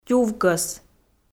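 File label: "тювкӧс", Komi, female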